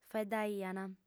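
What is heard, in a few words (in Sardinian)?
"faeddaiant", female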